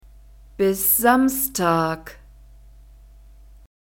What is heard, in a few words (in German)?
bis Samstag, German